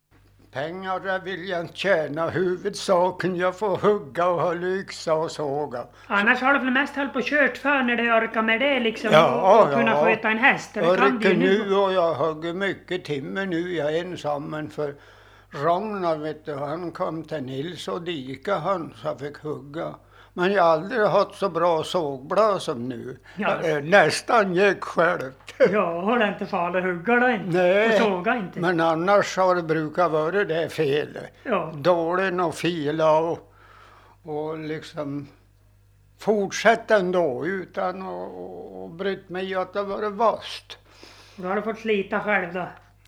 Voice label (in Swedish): dialekter; Folkminnen